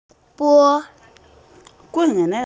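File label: "e4yu2", Mandarin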